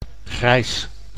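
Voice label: "grijs", Dutch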